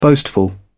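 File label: "boastful", British English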